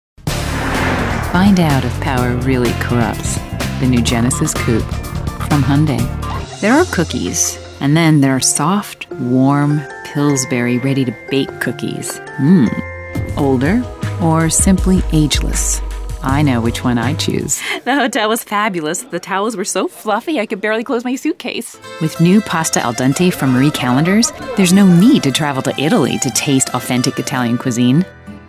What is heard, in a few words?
COMMERCIAL